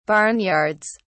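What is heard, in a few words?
"barnyards", British English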